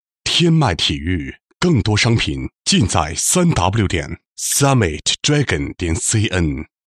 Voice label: 男 品牌广告, 国语男声配音; 天脉体育

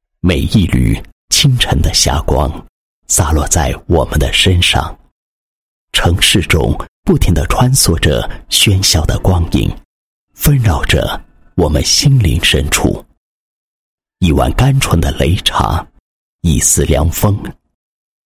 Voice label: 男 散文; 寻梦家园

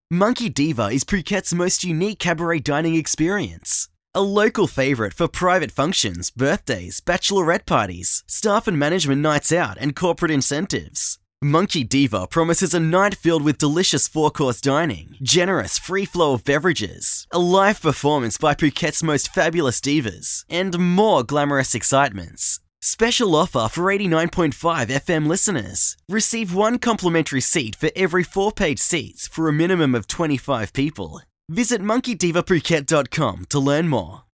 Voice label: 外籍英男, 餐厅宣传

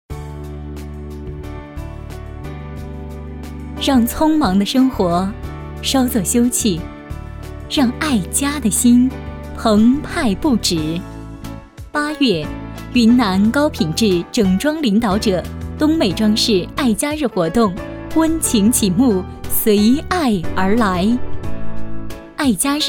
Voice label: 女, 广告, 家具, 优雅; 爱家日家具

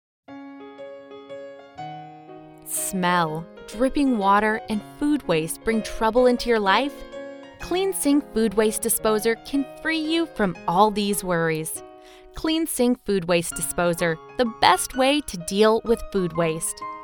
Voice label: 外籍女 commercials

